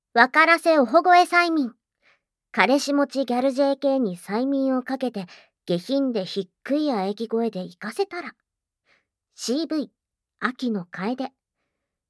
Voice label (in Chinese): 標題朗讀